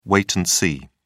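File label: "wait and see", British English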